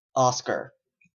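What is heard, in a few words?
"oscar", Canadian English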